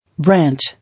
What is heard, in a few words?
"Branch", English